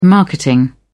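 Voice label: "marketing", English, British English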